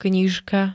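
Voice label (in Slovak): "knižka", Slovak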